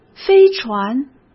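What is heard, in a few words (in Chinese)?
"飞船", Mandarin Chinese; chinese flashcards; hsk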